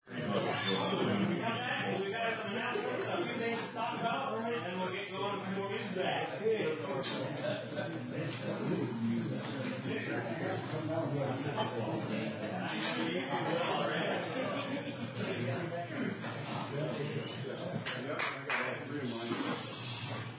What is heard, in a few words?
Announcements